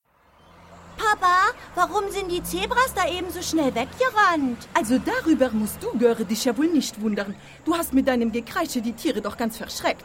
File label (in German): Hörspiel Dialekte